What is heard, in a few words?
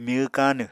"myw káanw", Apatani